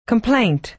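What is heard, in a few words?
"complaint" American English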